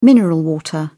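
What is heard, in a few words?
"mineral water", British English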